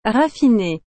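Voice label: "raffine", French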